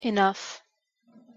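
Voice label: "enough", English pronunciation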